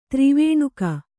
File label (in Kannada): "ತ್ರಿವೇಣುಕ", Kannada